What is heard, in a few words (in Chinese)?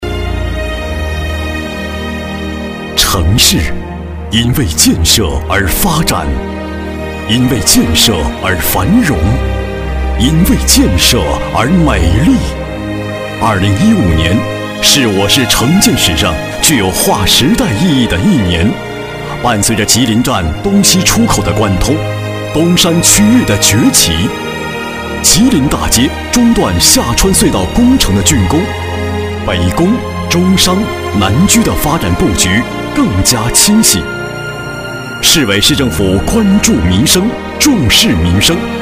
大气深情